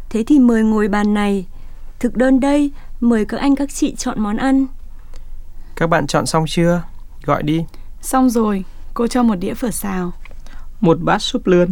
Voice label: Dialog